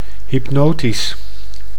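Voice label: "hypnotisch", Dutch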